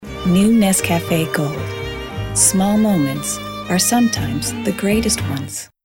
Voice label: female american english coffee comercial

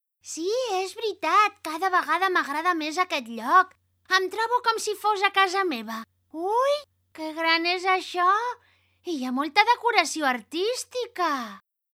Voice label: female catalan kids comercial

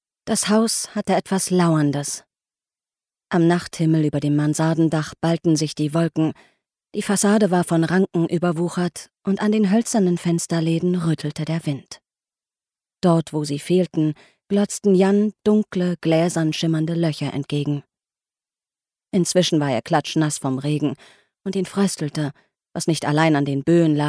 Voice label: female german audiobook; ideasonora

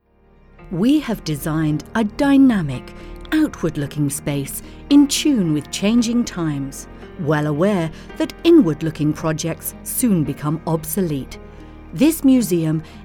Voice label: female british english castle comercial; ideasonora

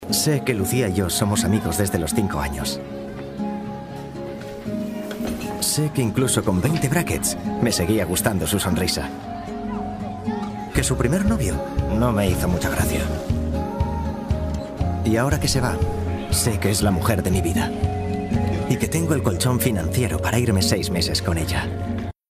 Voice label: male spanish bank comercial; ideasonora

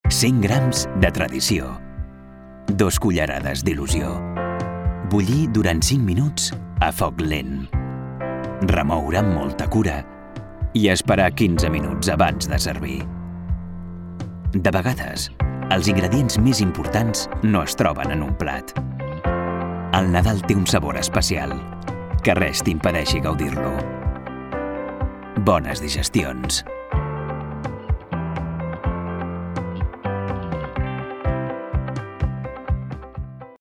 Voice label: male catalan food comercial

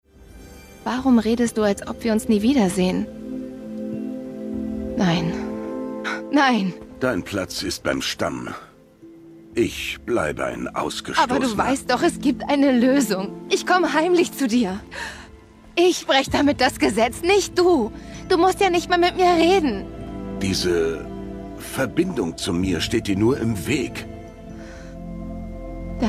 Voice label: female german commercial; ideasonora

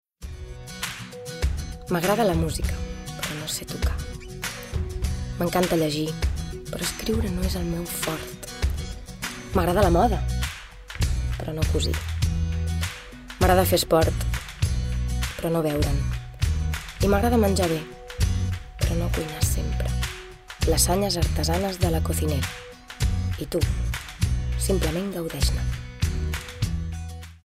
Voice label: female catalan food comercial; ideasonora

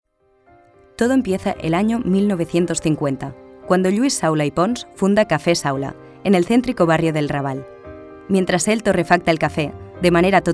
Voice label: female spanish documentary comercial; ideasonora

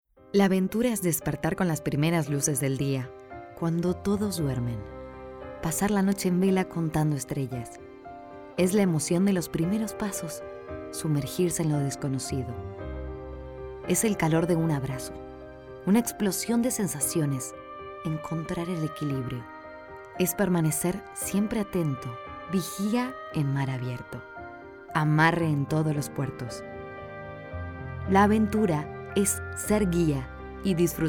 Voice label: female Argentinian tv comercial; ideasonora

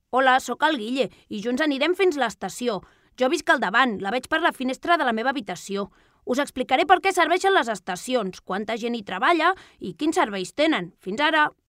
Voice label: female catalan kid comercial

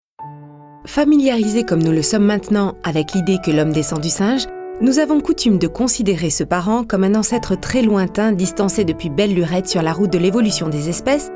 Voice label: female french narrative comercial; ideasonora

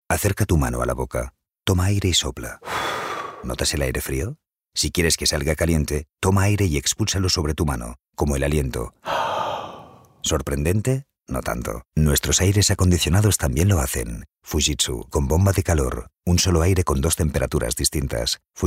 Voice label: male spanish air conditioning comercial

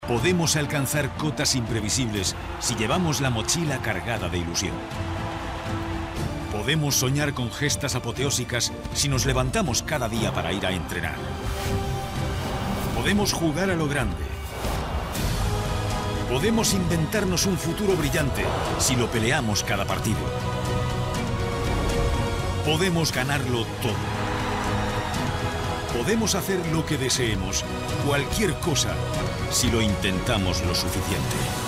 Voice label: male spanish sports comercial; ideasonora

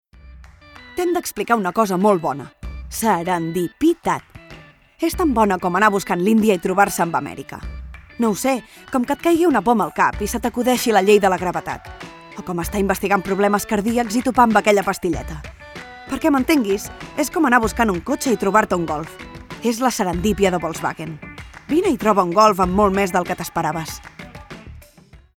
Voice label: female catalan car comercial; ideasonora

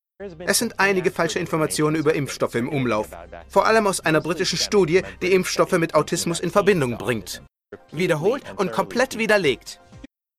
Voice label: male german comercial

